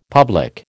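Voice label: "public", American English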